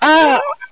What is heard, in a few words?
pain; svencoop; azuresheep